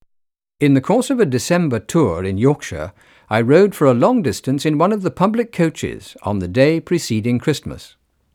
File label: male speech english; OmniPower4296